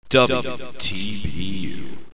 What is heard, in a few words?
WHISPER